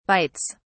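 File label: "bytes", British English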